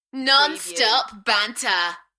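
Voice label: UK female, Enthusiastic, DJ vocals; Non-stop banter; beanstalk audio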